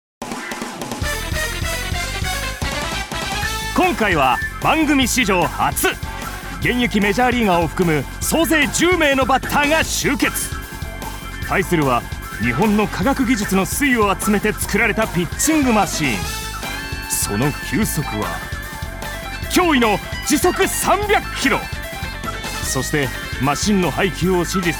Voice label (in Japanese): ナレーション